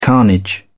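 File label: "carnage", British English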